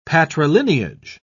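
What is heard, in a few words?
"patrilineage", British English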